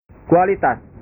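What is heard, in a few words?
"kualitas", Indonesian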